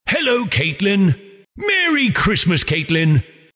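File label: Personalised Santa Message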